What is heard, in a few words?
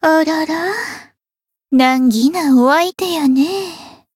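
Voice encounter fear, Japanese; hoozuki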